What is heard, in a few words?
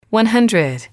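"one hundred", English, female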